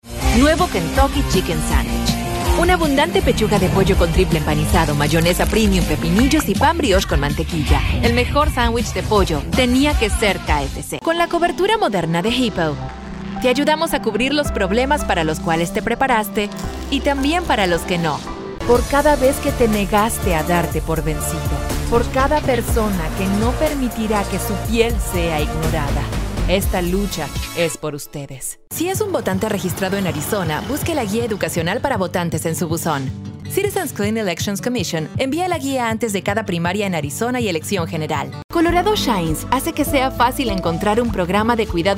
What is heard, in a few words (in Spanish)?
Acento Neutro